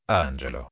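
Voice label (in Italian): "angelo", italiano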